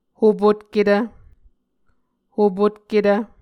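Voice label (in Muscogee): "hopotketv", Muscogee word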